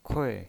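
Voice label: "kui", pinyin, Mandarin Chinese, fourth tone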